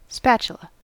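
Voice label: "spatula", US English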